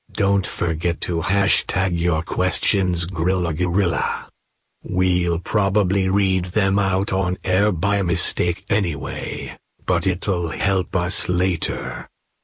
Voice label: gravelly voiceover man; promotes grill a guerilla